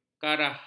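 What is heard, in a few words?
"karah", Malay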